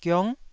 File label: "giong9", Taiwanese syllable